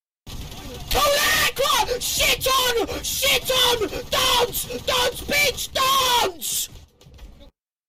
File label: rage; mongraal